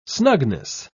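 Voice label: "snugness", British English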